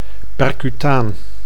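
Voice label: "percutaan", Dutch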